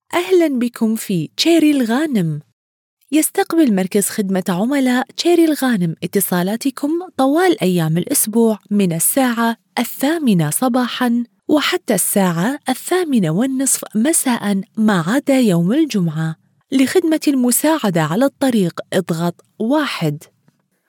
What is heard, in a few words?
IVR; CHERY; chery alghanim automotive automated response